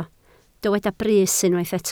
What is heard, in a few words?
"brys", welsh